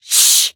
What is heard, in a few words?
touch, en; Cookie Run